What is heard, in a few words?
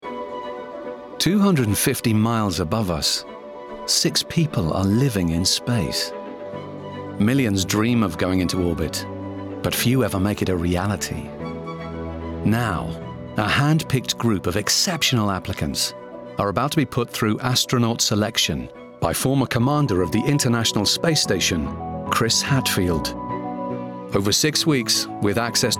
doco